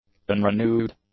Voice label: "unrenewed", english; adjectives

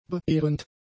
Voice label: "beehrend", German; adjectives

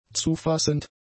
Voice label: "zufassend", German; adjectives

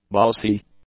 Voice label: "bossy", English; adjectives

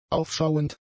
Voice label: "aufschauend", German; adjectives

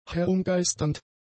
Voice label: "herumgeisternd", German; adjectives

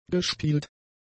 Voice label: "gespielt", German; adjectives

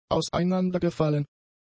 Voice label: "auseinandergefallen", German; adjectives

